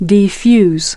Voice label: "defuse", us